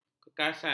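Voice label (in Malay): "kekasaran", Malay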